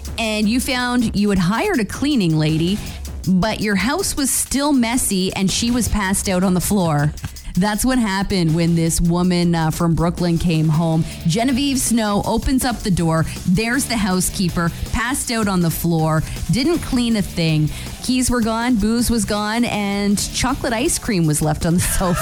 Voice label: Morning Show Promo; ROCK